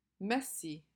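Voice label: "Messy", English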